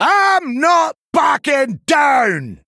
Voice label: Male Dwarf Bark; Brann Bronzebeard